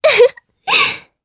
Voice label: Vox Happy, jp; voices heroes jp; Erze; kingsraid

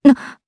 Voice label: Vox, jp; Ripine; kings raid

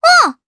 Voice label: Vox jp; Estelle; kingsraid

